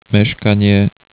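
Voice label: "meskanie", Slovak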